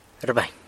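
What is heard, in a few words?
"rbany", San Lucas Quiaviní Zapotec; wakes up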